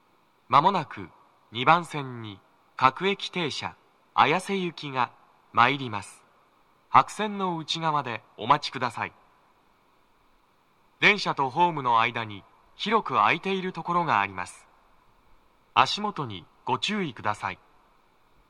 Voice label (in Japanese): 接近放送, 男声; 2番線 綾瀬・北綾瀬・取手方面 接近放送; 放送は「綾瀬行」です。; tokyometro chiyodaline nogizaka